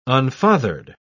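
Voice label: "unfathered", English, uk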